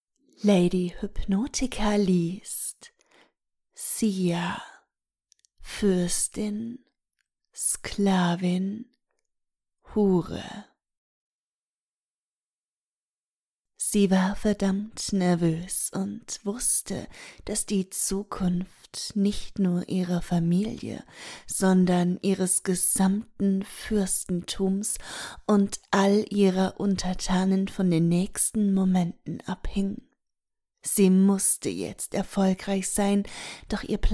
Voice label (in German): erotische Hörbücher; Ohrgasmus; Sia. Fürstin, Sklavin, Hure